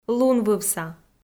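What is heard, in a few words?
"лунвывса" Komi, female; kpv audio dict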